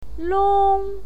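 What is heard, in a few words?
"long", pinyin, first tone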